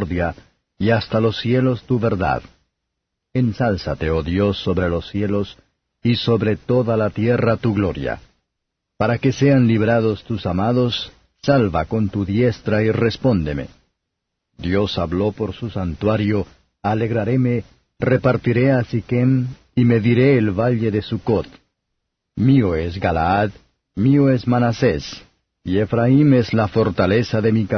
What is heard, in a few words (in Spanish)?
salmos spanish